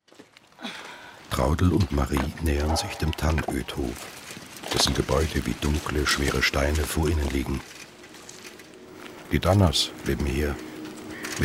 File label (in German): Filmhoerspiel